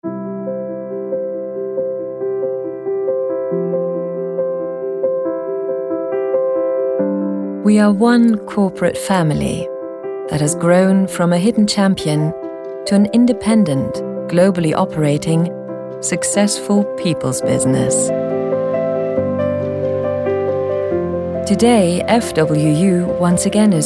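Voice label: British English, commentary, Sanft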